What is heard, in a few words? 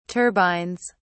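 "turbines", British English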